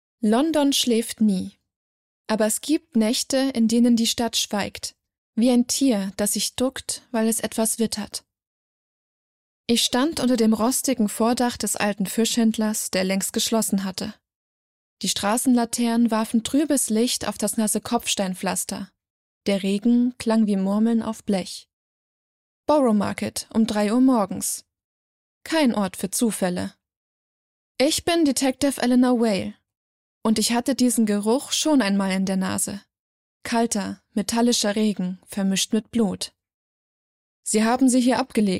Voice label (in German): Hoerprobe Krimi